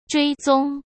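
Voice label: "追踪", Mandarin Chinese; chinese-flashcards; hsk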